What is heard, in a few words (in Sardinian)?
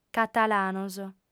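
"catalanos", female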